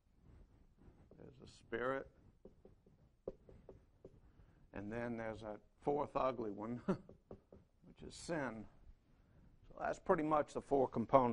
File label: English